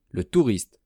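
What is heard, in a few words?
"le touriste", French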